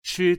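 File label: "chi", Chinese, tone 1, male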